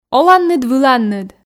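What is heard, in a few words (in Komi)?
оланныд-выланныд, Komi, female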